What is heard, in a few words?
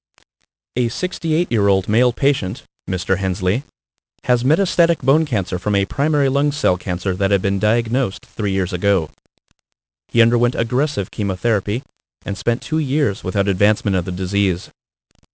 Cancer Scenario Sample, Narrator, Voiceover, E-Learning Case Scenarios; Provided narrative and character voices for online learnin; Kaiser Permanente, E-Learning Program; Narrator, "Ben" Voiceover